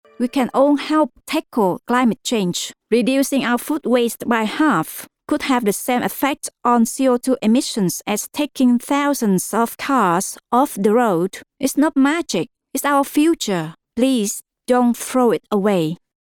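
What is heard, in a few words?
Vietnamese, female, English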